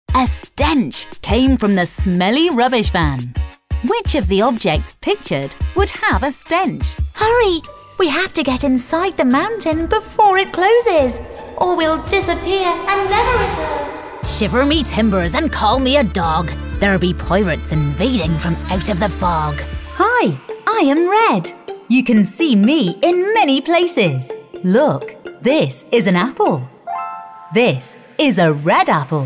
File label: Educational Showreel